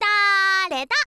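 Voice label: "dareda", girl, Japanese; voice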